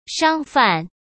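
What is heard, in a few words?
"商贩", Mandarin Chinese; chinese flashcards; hsk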